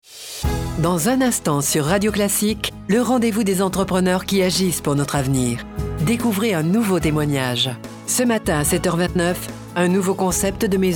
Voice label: Pub Radio; Generali

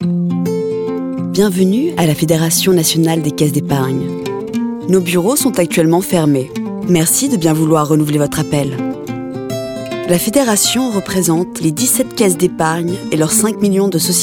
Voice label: Version FR GB serveur vocal; La Caisse d'épargne

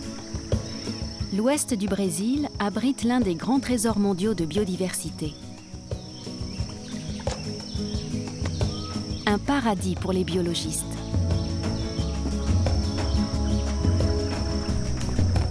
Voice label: NARRATION La faune du Pantanal; ARTE

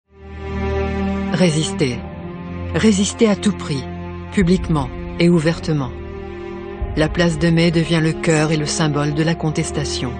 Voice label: Documentaire Narration; Dictature Argentine

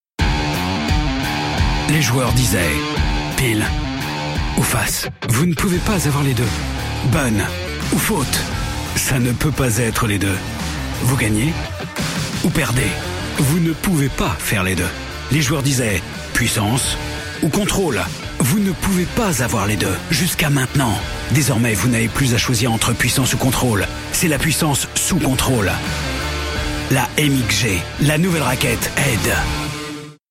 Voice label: Pub TV; Head

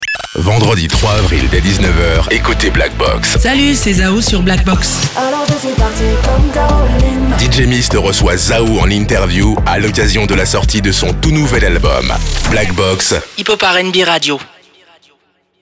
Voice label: Pub TV Web Radio FR; Blackbox

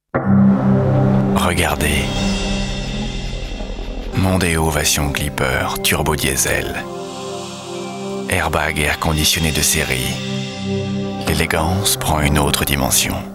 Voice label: TV Sensuel Viril Charmeur; Bourgogne; FORD

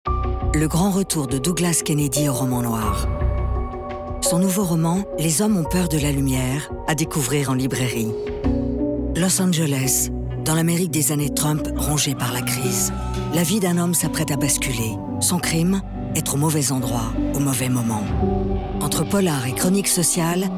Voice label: Spot; BELFOND; Douglas KENNEDY; Les Hommes ont peur de la lumiere

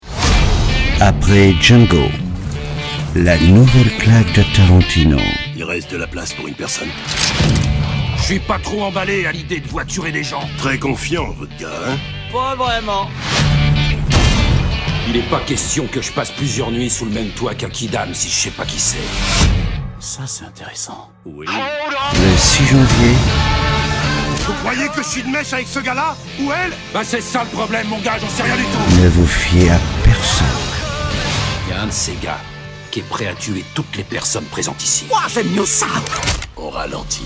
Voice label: Bande annonce VF; Les 8 salopards

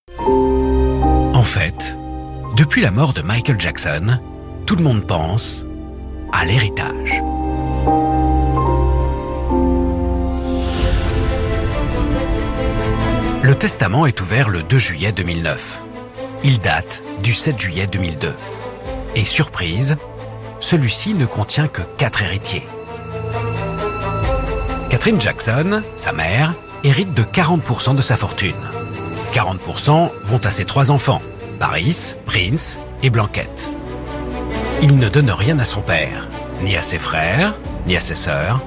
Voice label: voix narrative; TMC; Qui a tue Michael Jackson

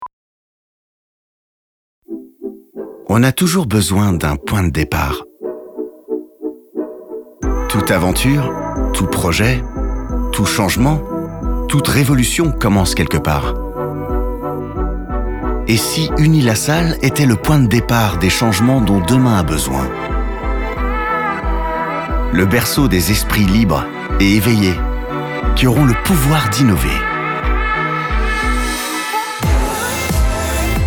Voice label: manifeste mix web voix; UniLaSalle

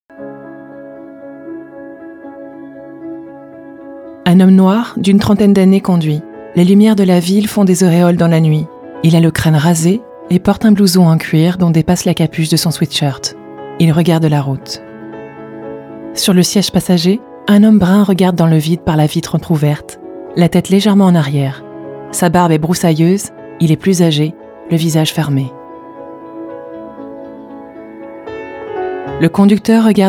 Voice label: Audiodescription; Intouchable

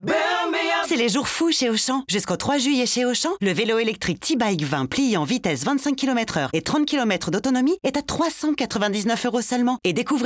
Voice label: Vélo Offre promo Plus mention légale; Auchan

